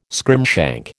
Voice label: "scrimshank", American